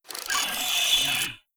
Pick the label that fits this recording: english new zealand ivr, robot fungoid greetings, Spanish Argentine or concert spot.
robot fungoid greetings